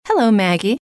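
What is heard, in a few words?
"hello", female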